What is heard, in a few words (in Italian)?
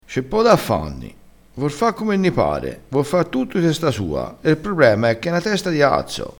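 "fanni", vocabolario dialettale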